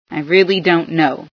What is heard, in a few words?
dont know, female